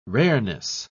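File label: "rareness", English, British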